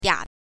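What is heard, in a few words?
"dia", Mandarin Chinese, neutral tone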